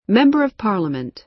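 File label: "member of parliament", American English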